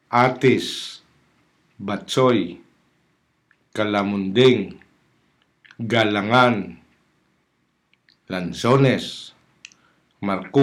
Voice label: alphabet words, hiligaynon; fruit lexicon